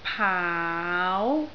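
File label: "paau2", Cantonese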